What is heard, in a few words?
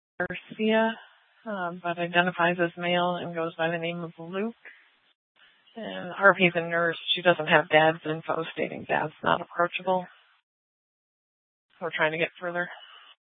Gilbert Police Dispatch; TOPAZ Regional Wireless Cooperative (TRWC) - Mesa Simulcast; 1 Dispatch